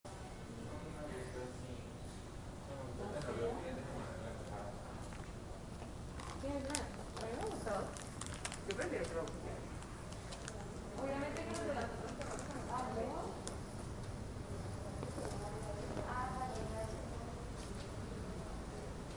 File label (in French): les gens entrain de parler